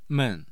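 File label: "men4", Mandarin Chinese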